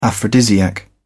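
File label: "aphrodisiac", British English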